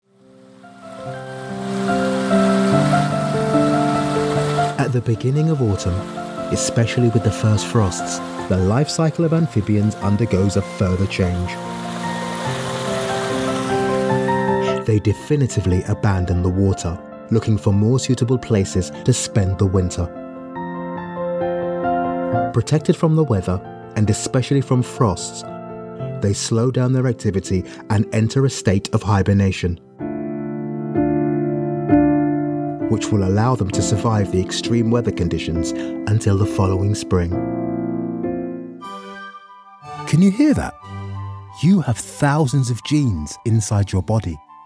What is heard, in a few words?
documentary